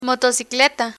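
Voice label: "motocicleta", Spanish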